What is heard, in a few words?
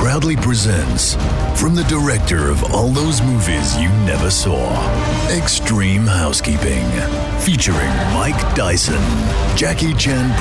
American trailer